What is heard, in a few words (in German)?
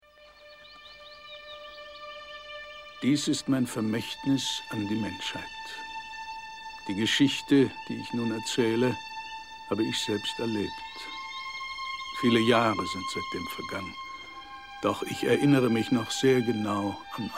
Intro Sprecher